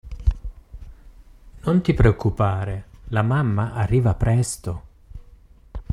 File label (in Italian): italiano